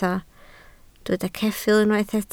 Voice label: "ceffyl", Welsh